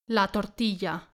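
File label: "la tortilla" Spanish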